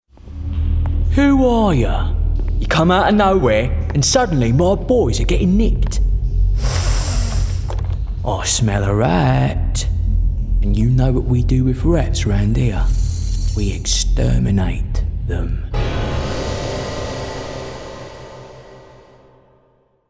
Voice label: Gaming Criminal London; Gaming Character – Criminal London